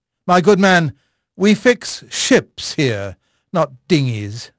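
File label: English male; Pirates Of The Caribbean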